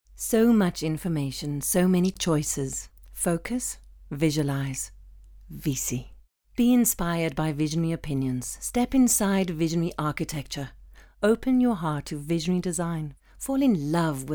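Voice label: english south african female commercial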